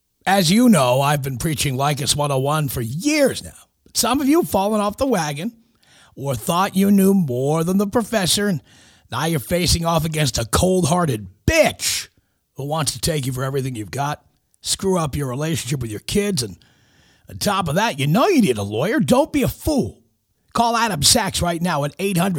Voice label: commercial, radio; leykis